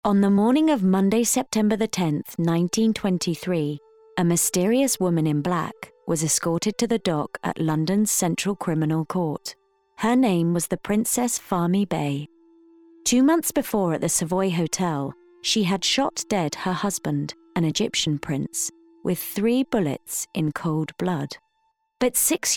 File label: VO reel Narrative; Documentary Sample